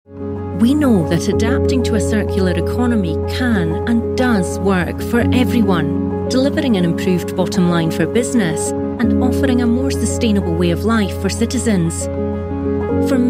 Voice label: corporate

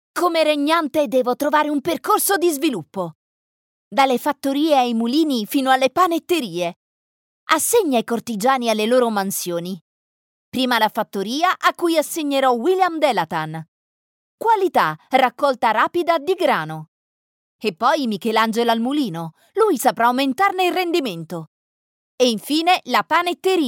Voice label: italian female videogame

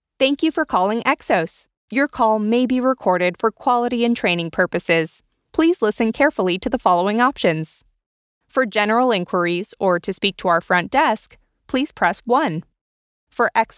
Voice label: telephone

